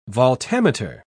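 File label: "voltameter", British English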